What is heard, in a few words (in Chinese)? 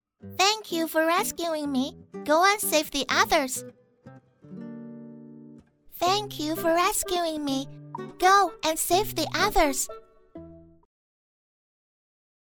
美式女英文绘本萝莉音